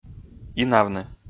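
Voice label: "инавны", Komi, male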